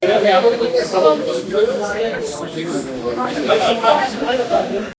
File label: people chatting and someo